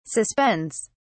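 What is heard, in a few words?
"suspends", British English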